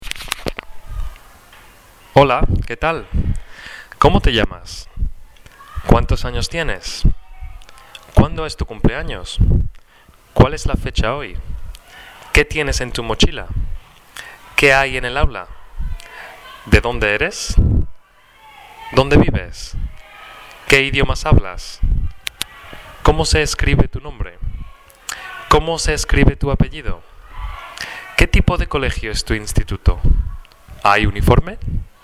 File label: Speaking Questions, Spanish; Year 07